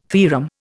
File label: "theorem", Indian